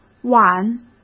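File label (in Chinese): "晚", Mandarin Chinese; chinese-flashcards; hsk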